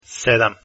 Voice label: "7", croatian

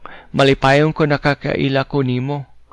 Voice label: meet, cebuano

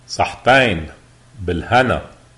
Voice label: "bon appetit" lebanese

